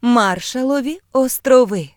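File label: "marshall islands", Ukrainian; gcompris; geography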